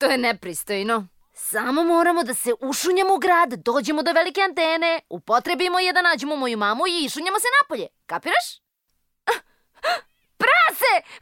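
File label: Serbian female character; voice demos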